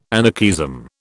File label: "anarchism", British